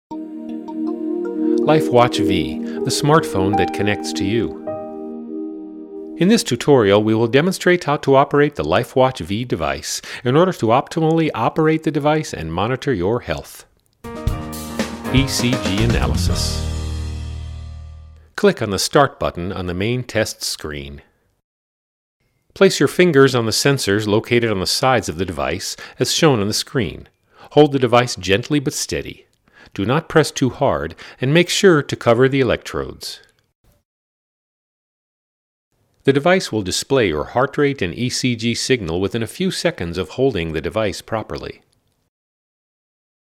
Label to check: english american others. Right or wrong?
Right